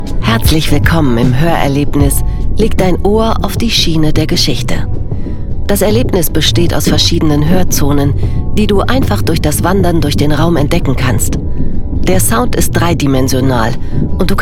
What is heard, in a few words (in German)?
Ausstellungsguide Museum; Stuttgart